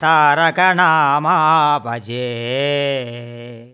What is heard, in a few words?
MAN VX, Indian Traditions